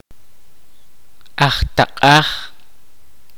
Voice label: kaqchikel; Person from coast

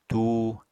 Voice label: "tuu", apatani

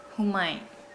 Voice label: "come", Nukuoro

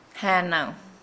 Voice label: "to be born", Nukuoro

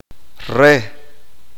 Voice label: uspanteko; ella

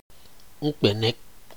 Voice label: a kind of timber tree Uapaca guineensis, ibibio; Dalziel

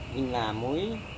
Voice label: "hinamui", serewen

